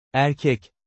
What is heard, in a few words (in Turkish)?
"Erkek", Turkish; Turkish lesson